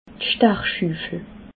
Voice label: "stachschufel", alsace

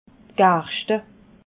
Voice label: "garschte", alsace

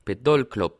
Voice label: "pedallklapp", alsace

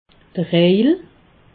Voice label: "rejel", alsace

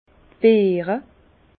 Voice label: "beere", Bas Rhin; vin; grains de raisin

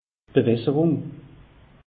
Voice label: "bawasserung", alsace; meteo

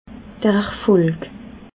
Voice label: "erfolig", alsace

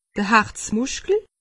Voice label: "harzmuskel", alsace; medecine

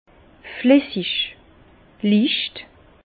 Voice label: "flissig", Bas Rhin; vin; coulant

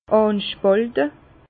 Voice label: "anspalte", Bas Rhin; vin; palisser

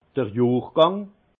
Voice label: "johrgang", alsace; vin; année

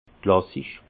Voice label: "klassisch", Alsace; vin; classique

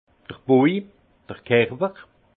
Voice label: "boj", alsace; vin; texture

